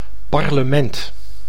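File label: "parlement", Dutch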